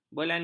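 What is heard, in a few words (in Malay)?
"belanda", Malay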